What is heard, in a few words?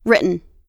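"written", US English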